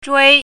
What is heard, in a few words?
"zhui1", Chinese pinyin